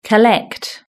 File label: "collect", british english